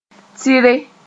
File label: "tɩre", moore